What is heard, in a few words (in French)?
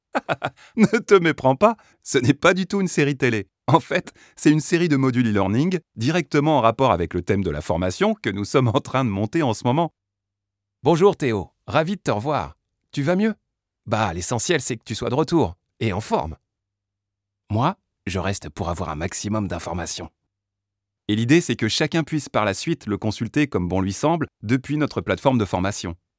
ELEARN Personnages Voix Off